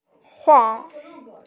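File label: "huang4", Mandarin Chinese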